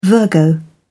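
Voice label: "virgo", English, British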